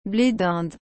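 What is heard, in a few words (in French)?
"ble d Inde", French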